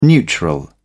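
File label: "neutral", British English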